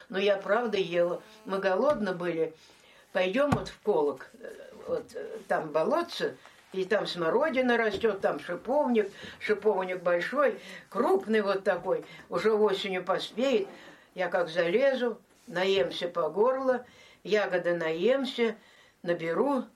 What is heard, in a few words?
middle ob dialect; колок